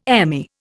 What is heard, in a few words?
"Emmy", Indian